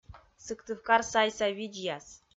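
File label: "Сыктывкар сайса видзьяс", Komi-Zyrian, female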